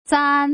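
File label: "zan", Mandarin Chinese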